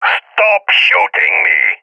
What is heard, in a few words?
taking fire friendly, balkan; vo; balkan epic